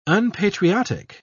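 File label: "unpatriotic", British English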